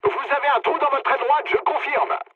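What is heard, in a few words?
French right wing mood low; War Thunder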